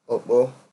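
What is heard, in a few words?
"okpo", Nigerian Pidgin, male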